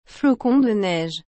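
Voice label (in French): "flocon de neige", French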